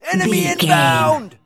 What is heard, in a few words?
voice male soldier enemy inbound